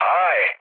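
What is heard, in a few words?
Turkish trick, mood low, speech; War Thunder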